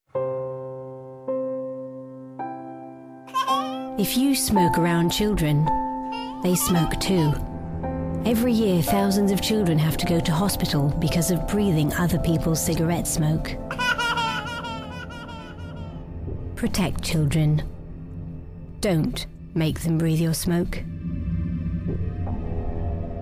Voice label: advert (English); NHS